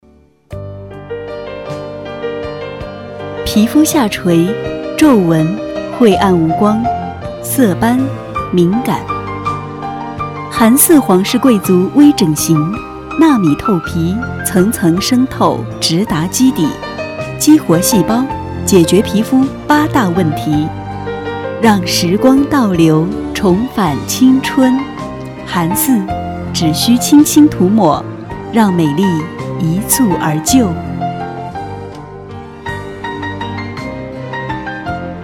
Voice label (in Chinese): 广告 美容 抒情大气 配乐, 国语女声配音; 年轻专题; 微电影型